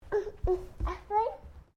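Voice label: MALE 2 year old CURIOUS; Talking Sound Effects; Talking (Sound Effects:Voice)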